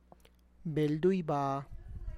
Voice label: early harvest of nanche, Tlacochahuaya Zapotec

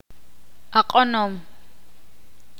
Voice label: "Mozo", Ixil

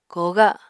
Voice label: "kooga", Apatani

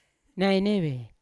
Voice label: "naineve", Yawa; brown; unat

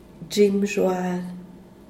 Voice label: "xhim", "xhual"

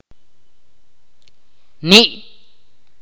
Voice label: "nariz" in Ch'orti'

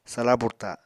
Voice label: "sala burta", Apatani

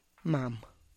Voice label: "mam", grandmother, tlacochahuaya

